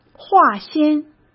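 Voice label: "化纤" Mandarin Chinese; hsk; chinese-flashcards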